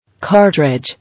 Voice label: "Cartridge", English